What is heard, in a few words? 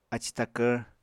"aci takvr", apatani